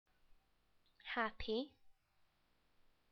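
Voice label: "happy", English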